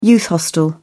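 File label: "youth hostel", British English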